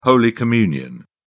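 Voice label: "holy communion", British English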